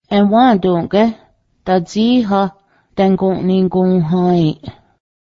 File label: can i bother you for a little while, Cayuga; ęwaoˀgę́h dajiháh dęgǫˀni gohá:ęˀ